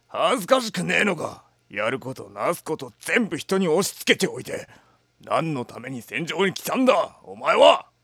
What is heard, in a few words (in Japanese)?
ossan